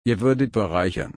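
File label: "bereichern", German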